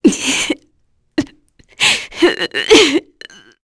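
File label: Vox Sad, Korean; Glenwys; kingsraid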